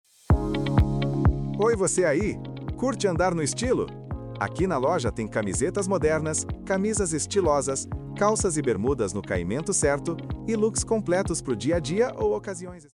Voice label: Loja de Roupas Masculinas, vinheta comercial voz

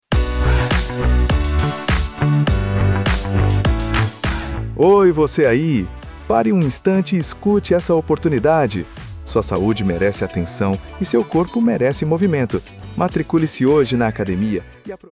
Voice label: Vinheta Comercial Profissional em Audio Oi Voce Ai Academia; pare um instante

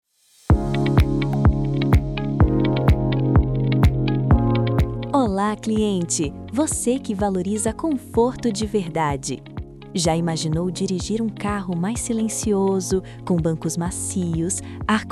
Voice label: Vinheta Comercial Profissional em Audio Carro com Conforto Loja de Veiculos

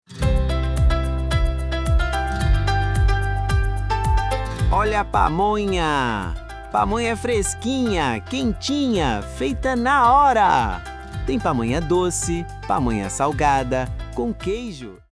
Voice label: Vinheta Comercial Profissional em Audio Carro da Pamonha

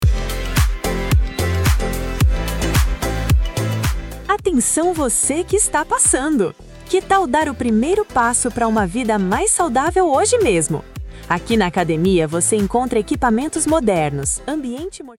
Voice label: Vinheta Comercial Profissional em Audio Atencao Voce que Esta Passando Academia

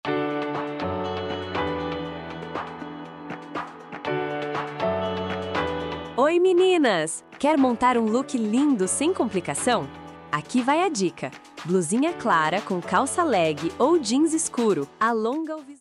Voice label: Dica de Estilo Feminino, vinheta comercial voz; loja de roupas

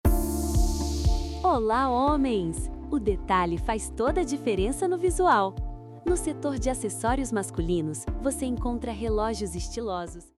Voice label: Acessorios Masculinos, vinheta comercial voz; loja de roupas

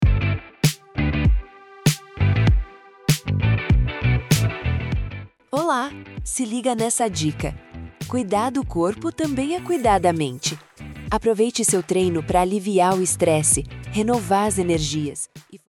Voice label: Vinheta Comercial Bem estar e saude Academia

